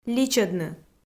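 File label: "личӧдны", Komi, female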